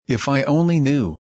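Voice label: "only", English word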